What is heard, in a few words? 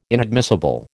"inadmissible", American